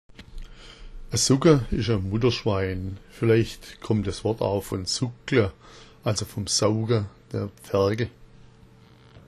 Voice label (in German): sugga, schwaebisch